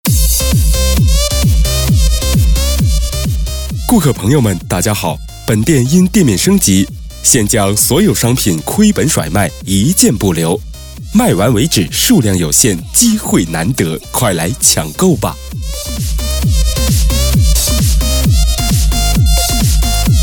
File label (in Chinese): 促销广告某超市